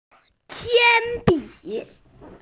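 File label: "pencil", hearing impaired; qian1bi3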